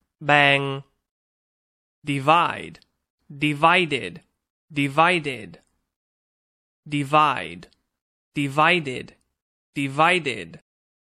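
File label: "divide", English; Verb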